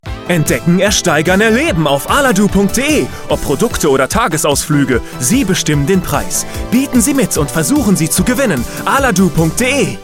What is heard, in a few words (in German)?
werbung aufgedreht; aladoo